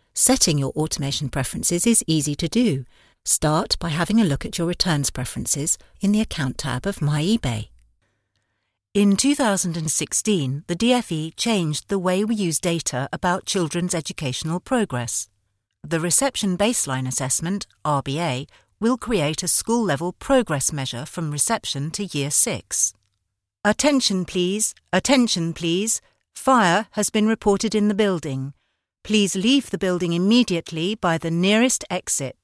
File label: english british female